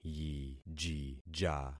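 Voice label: "yijija", concatenated syllables; Affixing experiment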